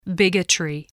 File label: "bigotry", English